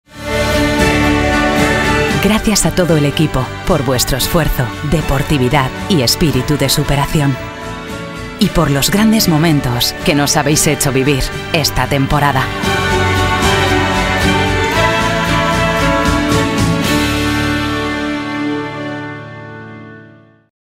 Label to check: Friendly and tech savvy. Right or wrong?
Wrong